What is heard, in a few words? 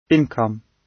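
"income" British; Business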